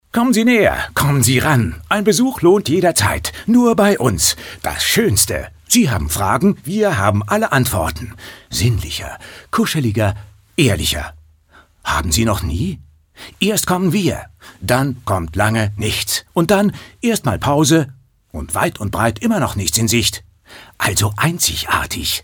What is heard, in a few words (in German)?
Schauspiel Aufgeregt